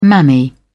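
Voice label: "mammy", English, uk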